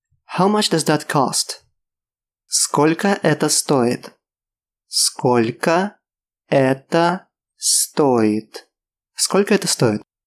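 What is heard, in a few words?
How much does that cost, Russian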